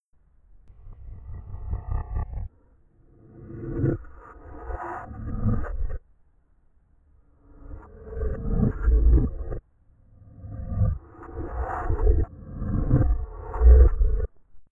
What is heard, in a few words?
talk, zombie